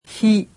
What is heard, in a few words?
"hi", japanese; alphabet